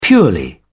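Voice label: "purely", British English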